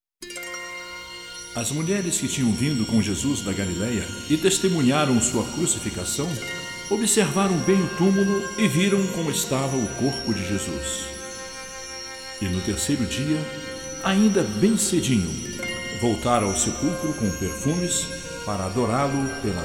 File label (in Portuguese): Narração; Cristo Ressuscitou